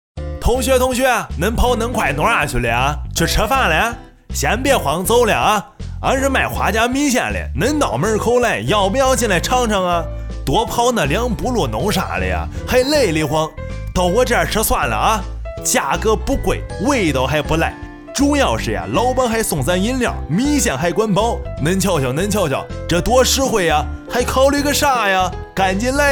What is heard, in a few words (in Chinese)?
河南口音